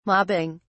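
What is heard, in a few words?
"mobbing", British English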